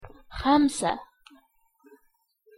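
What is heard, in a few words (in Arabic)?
"خمسة", Arabic pronunciation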